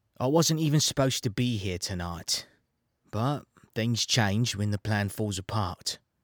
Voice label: Standard British Character